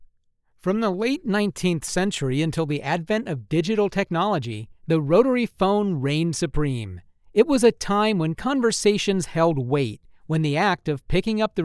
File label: Narration Sampler